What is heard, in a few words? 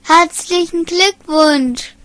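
congratulation, Scottish Gaelic; gcompris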